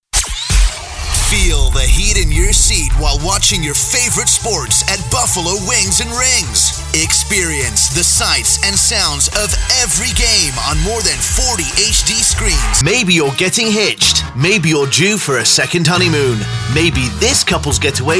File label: Radio English